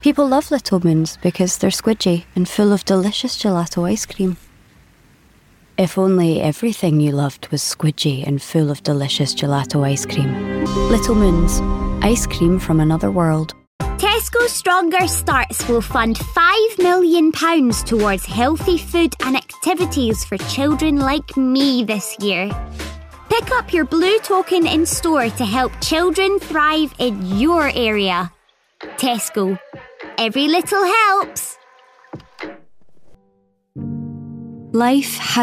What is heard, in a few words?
Commercials, regional accents